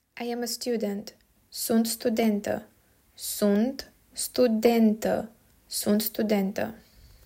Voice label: Romanian I am a student

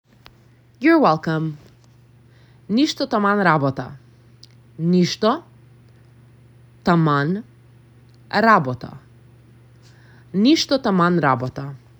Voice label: Youre Welcome Informal, macedonian; the talking macedonian phrasebook

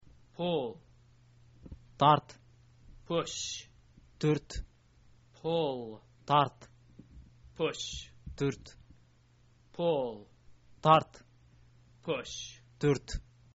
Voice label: pull push, kyrgyz

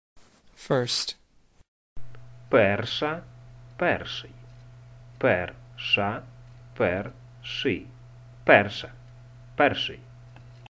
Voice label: UKR First

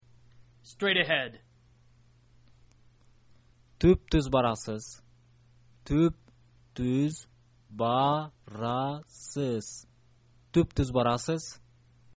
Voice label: straight ahead, kyrgyz

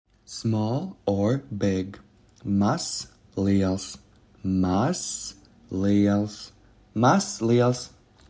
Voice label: Small Big, Latvian; the talking latvian phrasebook

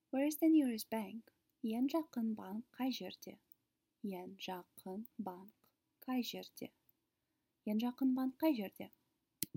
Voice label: Kaz Where is the nearest bank

